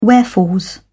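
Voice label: "wherefores", British English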